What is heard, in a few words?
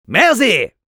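Medic thanks, French; "Merzi."; Robot Medic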